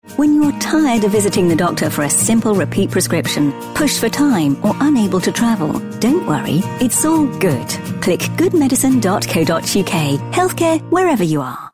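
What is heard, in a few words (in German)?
British English Werbung Neutral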